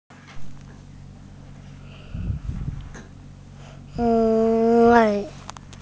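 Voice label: "lei4", Chinese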